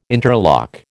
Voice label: "interlock", American